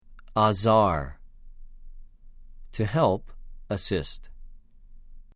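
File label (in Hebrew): "עָזַר", Hebrew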